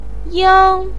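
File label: "yang1", Mandarin pinyin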